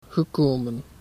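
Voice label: "gekomen", Dutch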